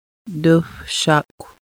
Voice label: "upward", Lushootseed